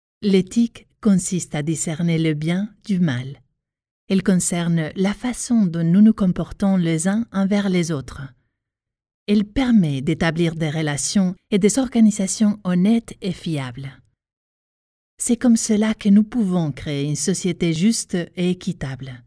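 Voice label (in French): corporate chaleureux amicable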